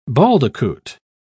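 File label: "baldicoot", British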